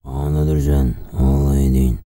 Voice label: TUVAN TALK; Spectrasonics Vocal Planet CD5; World Voices

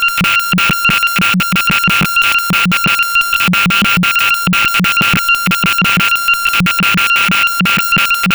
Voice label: radio chatter; Zero-G Ambient 1

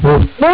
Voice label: "aha", Amiga Soundtracker sample

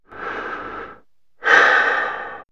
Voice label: Men Women Animal Magic, vox; X-Static Goldmine

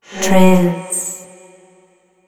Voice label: "Trance", vocal phrases; Ultimate Vocals

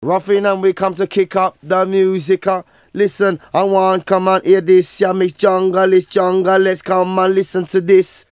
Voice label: Vocals; Jungle Frenzy 1

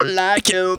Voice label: "like you", Funky Vocals, girls; USB Soundscan vol.18; AKAI; TB GIRLS

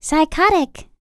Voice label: FEM SPOKEN; ILIO; Vocal Planet VOL-4; R&B & Dance

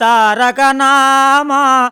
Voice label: MAN VX, Indian Traditions; USB Soundscan vol.45; AKAI

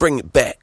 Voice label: Vocals

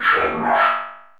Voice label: ODD VOX, ROBOT VOX; Zero-G Ambient 1

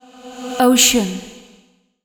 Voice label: fem spo, Vocals; Mutekki Media; Diamond Vocals 2

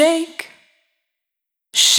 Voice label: "Shake", Vocal Samples, Words

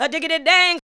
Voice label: ADLIBS 90; Ueberschall; Jam Box

